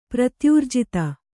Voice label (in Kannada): "ಪ್ರತ್ಯೂರ್ಜಿತ", Kannada